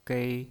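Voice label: "gei", Mandarin Chinese, first tone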